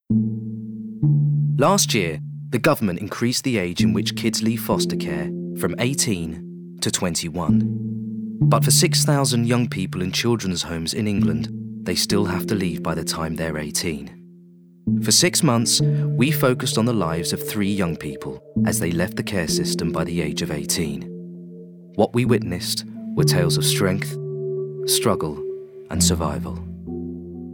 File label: narrative; Care